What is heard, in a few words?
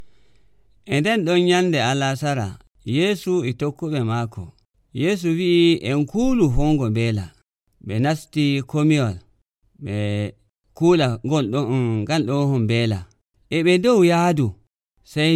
Fulfulde Nigerian; Jesus Calms the Storm